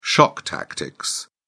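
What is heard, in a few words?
"shock tactics", British English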